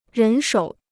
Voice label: "人手", Chinese